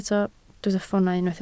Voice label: "ffoonau", Welsh